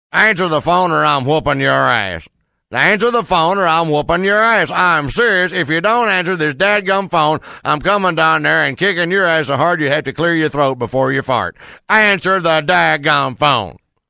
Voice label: funny redneck ringtone